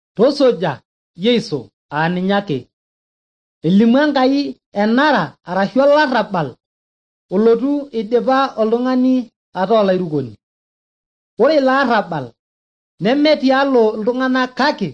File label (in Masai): maasai bsv nt drama; good news; bible